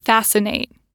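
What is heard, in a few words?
"fascinate", English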